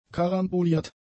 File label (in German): "karamboliert", German; adjectives